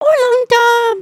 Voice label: group talk